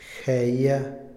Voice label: año, Otomí, hombre; Tiempo; Vocabulary; INALI

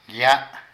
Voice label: Liendre, Zapoteco de Petapa; Animales; Vocabulary

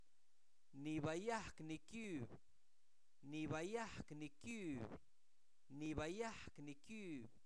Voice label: Zapoteco Albarradas, santo domingo albarradas; adjetivos; renovado; INALI

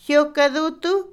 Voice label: sastre, Otomí, mujer; Ropa; INALI

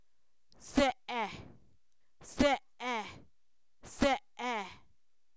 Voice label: elote, Zapoteco, san miguel albarradas; comestible

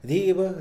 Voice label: cuál, Mixe, hombre; Cognición; INALI

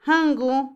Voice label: cuánto, Otomí, mujer; INALI

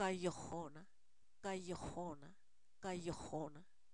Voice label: Zapoteco, san miguel albarradas; sesenta; numeros

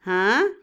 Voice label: "sí afirmativo", Otomí, mujer; Cognición; Vocabulary; INALI

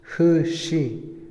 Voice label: chiflar, Otomí, hombre; Habla; INALI

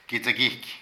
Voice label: Cabello, Zapoteco de Petapa; Cuerpo; Vocabulary

